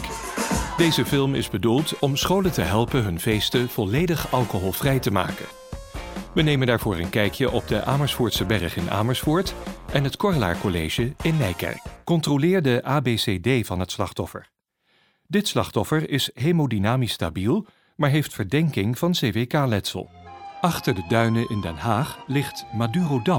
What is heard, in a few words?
corporate, voice-over; demo